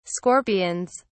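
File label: "scorpions", British English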